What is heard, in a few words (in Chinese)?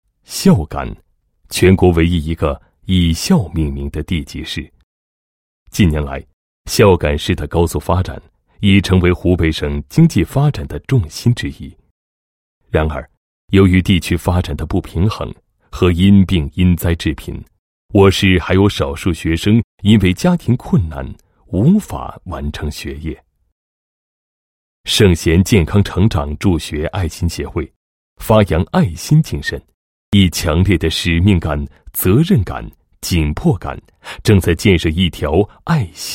男 专题片, 助学爱心协会宣传介绍